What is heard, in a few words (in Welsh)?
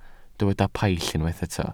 "paill", Welsh